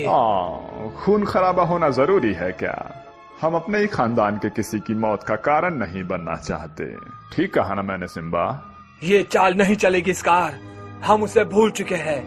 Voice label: movie scene dub, Animation; The Lion king